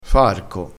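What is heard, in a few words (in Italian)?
"farco", vocabolario dialettale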